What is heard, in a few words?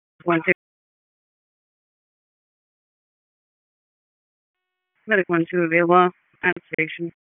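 Wake County EMS Dispatch, p25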